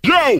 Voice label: "yo", Voice; ooh ahh